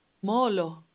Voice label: Xhosa, hello